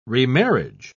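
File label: "remarriage", British English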